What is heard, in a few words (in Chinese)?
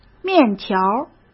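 "面条儿", Mandarin Chinese; hsk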